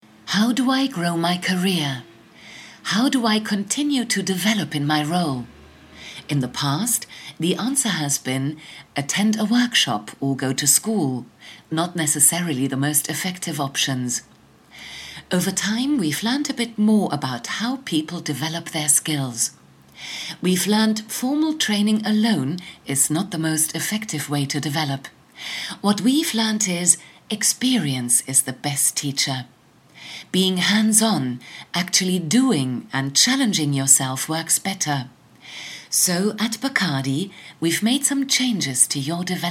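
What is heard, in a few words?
German English, F, Narration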